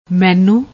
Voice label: "mainoo", Punjabi